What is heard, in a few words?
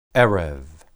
"ereb", Hebrew